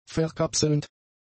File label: "verkapselnd", German; adjectives